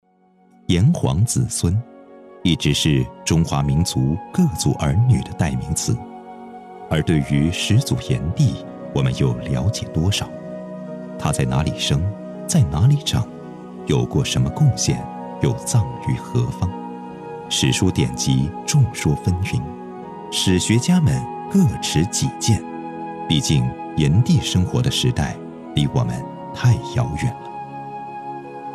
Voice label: 样音专题片; 炎帝故里寻迹

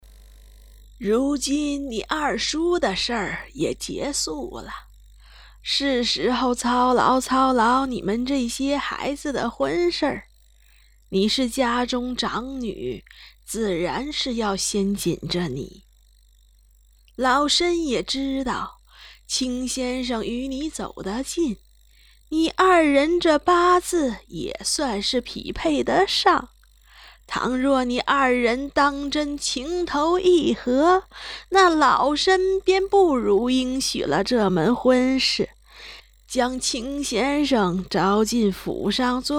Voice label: 老夫人; 白老夫人

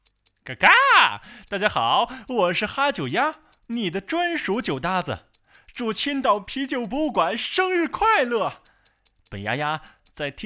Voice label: 搞怪广告

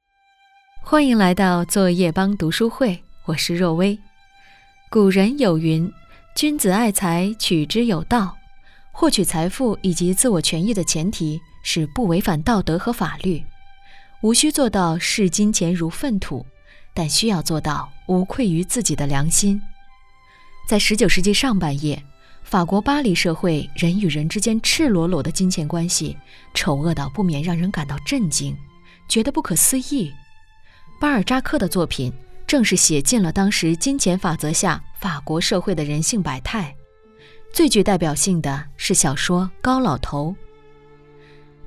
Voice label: 电台 读书会; 作业帮

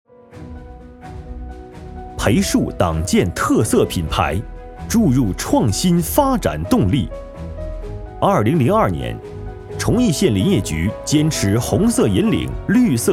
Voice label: 企业宣传

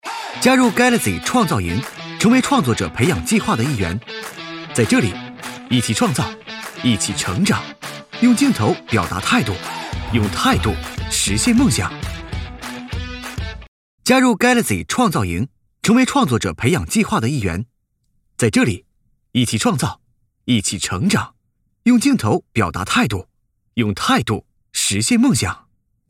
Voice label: 活力广告; 三星盖乐世创造营

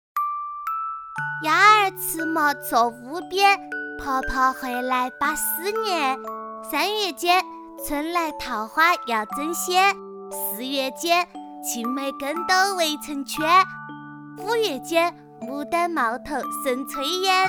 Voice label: 川话童谣

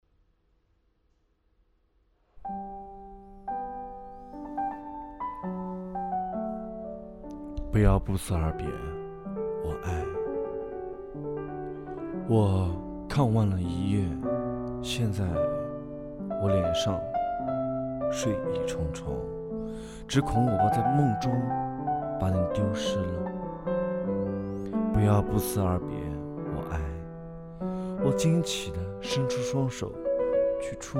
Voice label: 泰戈尔诗朗诵

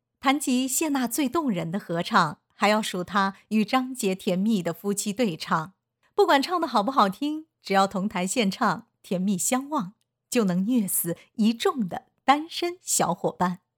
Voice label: 综艺类

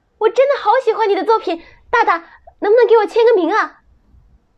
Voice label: 日常戏感练习激动

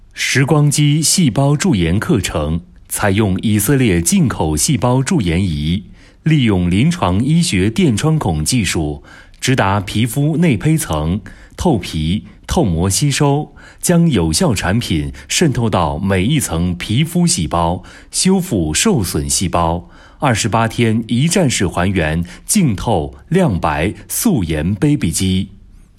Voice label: 干声; 时光姬细胞驻颜课程稿提速正式版

